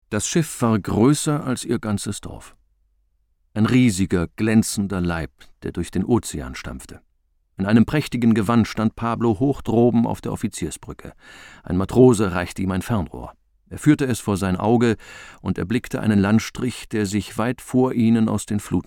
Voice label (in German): de voiceover, deutsch maenner; sprecher